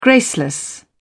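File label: "graceless", English, British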